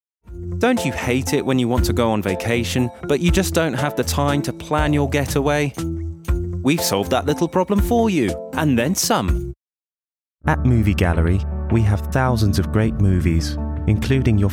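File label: English UK male commercial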